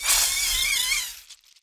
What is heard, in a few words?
hurt